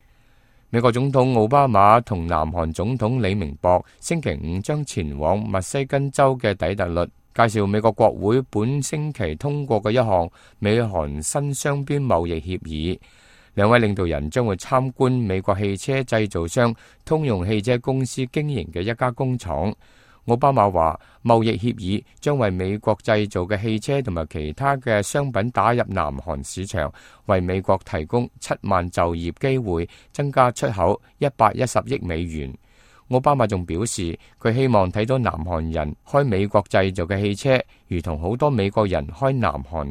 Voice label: cantonese, news